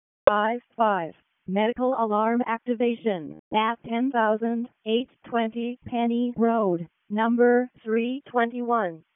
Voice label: WC EMS ALERT, p25; wake p25